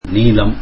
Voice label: tamil blue